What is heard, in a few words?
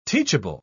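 "teachable", British English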